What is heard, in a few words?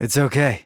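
"It's okay", male; rantaro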